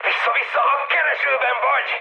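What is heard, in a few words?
Hungarian searchlite mood high, speech; War Thunder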